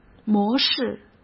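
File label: "模式", Mandarin Chinese; chinese-flashcards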